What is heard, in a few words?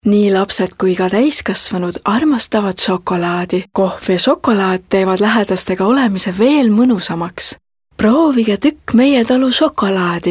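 Estonian female Sweet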